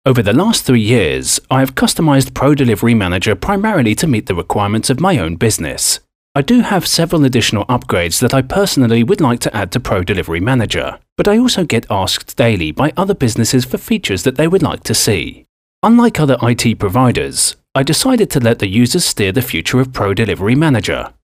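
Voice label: English, male; lektoring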